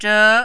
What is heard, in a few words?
"zhe2", Mandarin